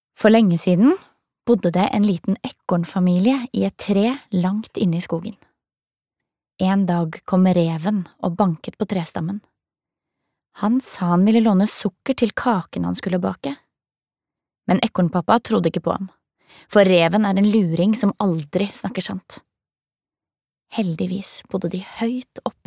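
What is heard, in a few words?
Norwegian childrens book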